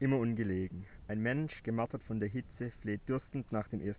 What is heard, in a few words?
room speech 8kHz